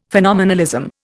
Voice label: "phenomenalism", Indian; Dictionary